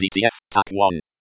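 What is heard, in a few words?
DTF Tac 1, Computer Voice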